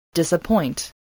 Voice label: "disappoint", english spelling